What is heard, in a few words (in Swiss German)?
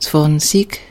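"zwànzig", Alsatian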